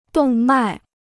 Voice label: "动脉", Chinese

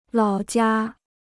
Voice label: "老家", Chinese

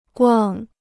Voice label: "逛", Chinese

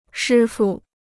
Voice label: "师傅", Chinese

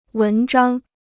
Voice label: "文章", Chinese

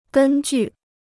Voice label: "根据", Chinese

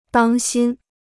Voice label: "当心", Chinese

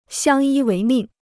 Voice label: "相依为命", Chinese

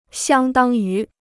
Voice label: "相当于", Chinese

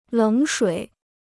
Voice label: "冷水", Chinese

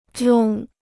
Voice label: "中", Chinese; 中 (zhòng); to hit (the mark); to be hit by

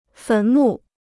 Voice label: "坟墓", Chinese

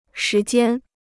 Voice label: "时间", Chinese

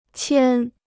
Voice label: "牵", Chinese

